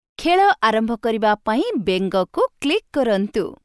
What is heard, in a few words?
click frog, Odiya